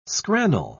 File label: "scrannel", pronounce